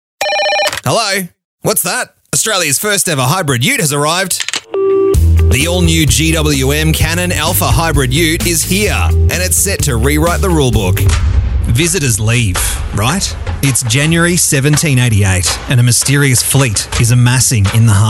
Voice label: VOICE, conversational, professional, authentic, trustworth